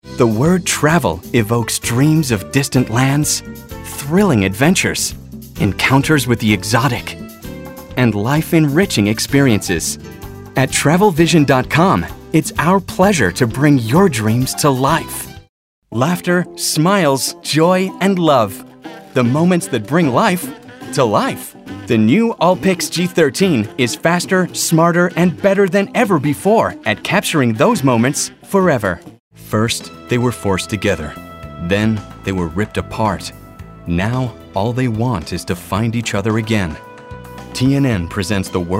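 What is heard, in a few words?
American, TV commercial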